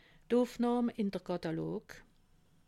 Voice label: "katalog", alsace

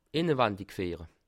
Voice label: "innewandig", alsace

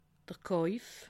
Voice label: "kauf", alsace

